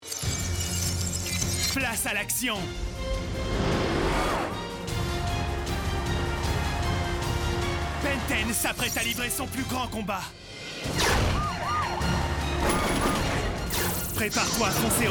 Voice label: CARTOON; Ben 10 Destruction Alien

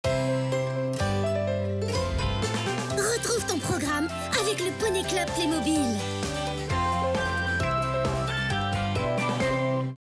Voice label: Voix fille Billboard; Playmobil

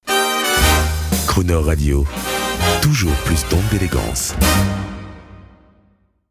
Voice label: JINGLE

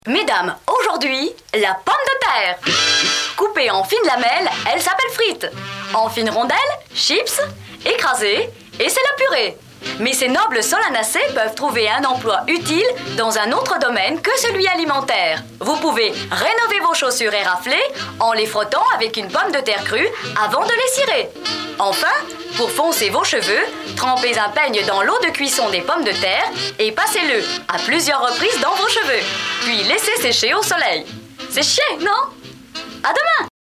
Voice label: voix annees 50; Mademoiselle Trucmuche

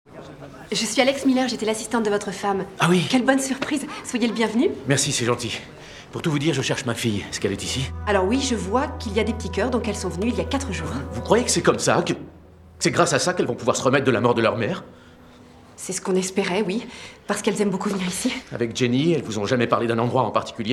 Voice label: Demo voix doublage audio seul

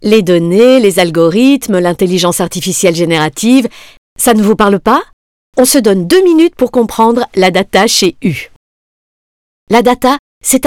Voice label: institutionnel

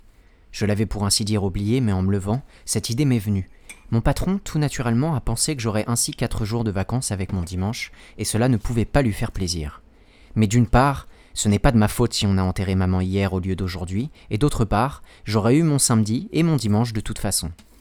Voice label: ESSAI enregistrement maison

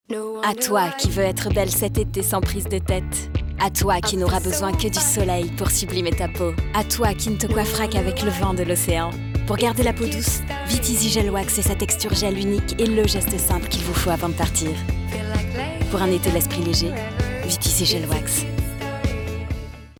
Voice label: SPOT Complice et confiante, voix-off; Veet

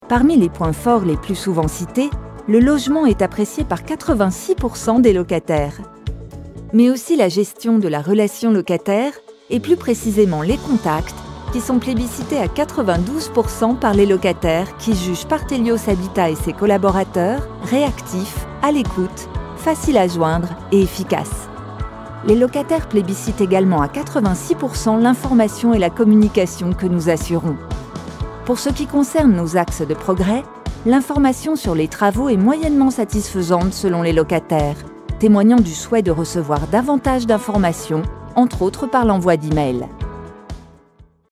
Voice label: institutionnel posée souriante; PARTELIOS HABITAT

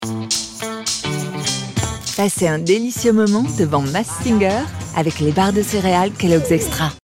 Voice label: billboard sensuelle chaleureuse; KELLOGGS EXTRA

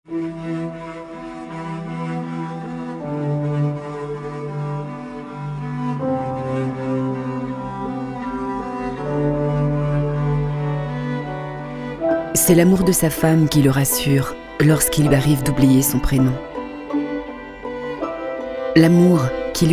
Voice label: comedienne voix off alzheimer; france alzheimer

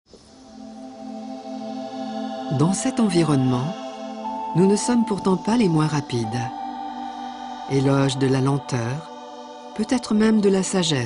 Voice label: Documentaire Narration; Le paresseux; Ushuaia

